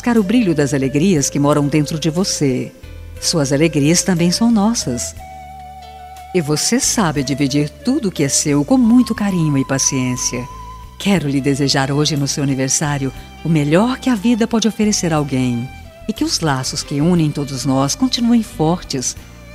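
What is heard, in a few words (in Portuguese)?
birthday SOGRA female, telemensagem; ANIV SOGRA FEM; aniversario sogra sogro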